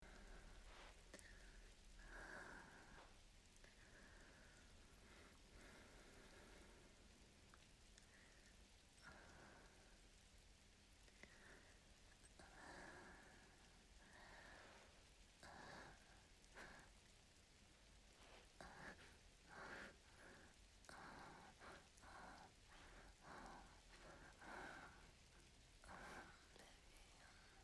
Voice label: Late Night Rain, Breathy, Quiet, Moans, Rain Sound; F4A; GFE